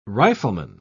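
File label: "rifleman", British English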